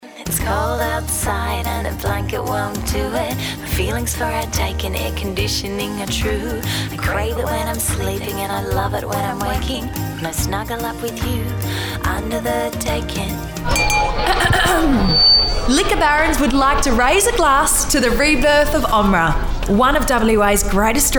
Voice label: Voice Demo; Actor, Voiceover, Presenter; Location Perth